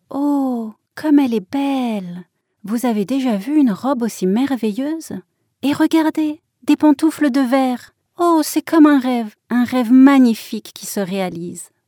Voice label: Cartoon, french

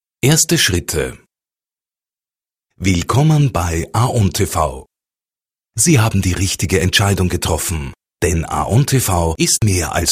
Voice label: e-learning, german

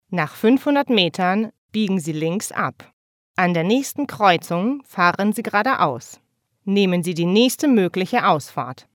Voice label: GPS, german

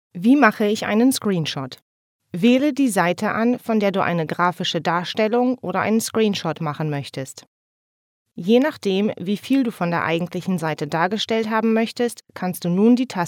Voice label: e-learning, german

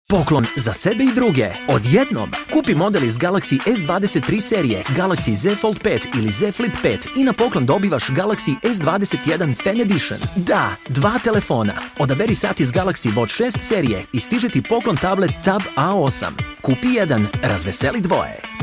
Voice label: Commercial, bosnian

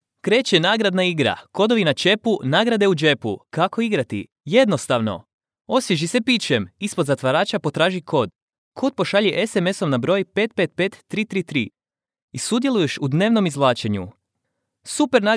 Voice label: radio commercial, croatian

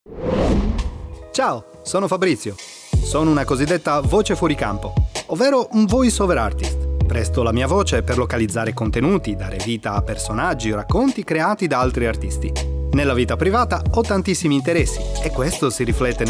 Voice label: intro, italian

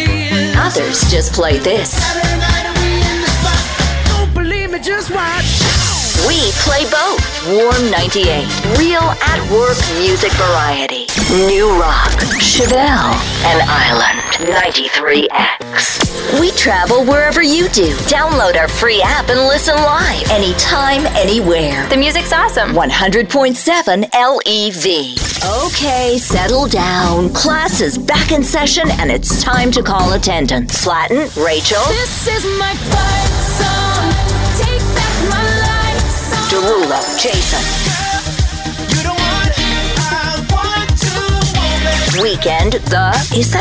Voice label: Radio Imaging, english, USA

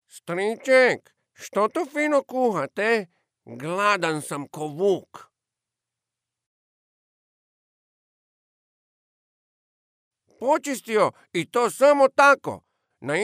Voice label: software kids, croatian

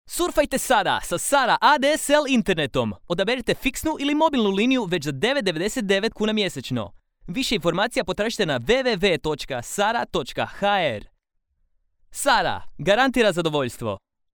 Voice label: fast selling, croatian

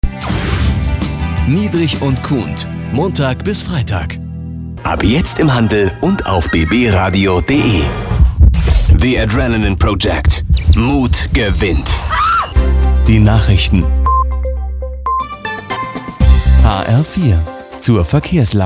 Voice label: announcement, german

